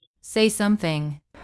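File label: Voice Acting Spanish Female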